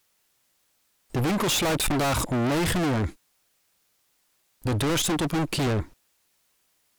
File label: male Dutch Amplitude Clip; Speech Quality Examples